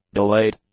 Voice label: "delayed", english; adjectives